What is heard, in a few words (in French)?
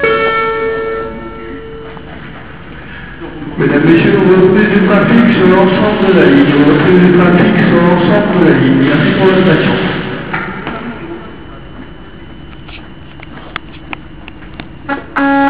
message, metro